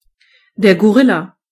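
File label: gorilla, German voices words; gcompris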